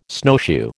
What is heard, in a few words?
"snowshoe", American